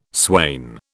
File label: "swain", British